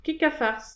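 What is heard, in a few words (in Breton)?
"kig ha farz", Breton; petit precis de prononciation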